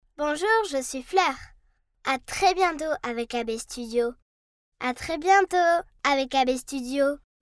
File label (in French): voix enfant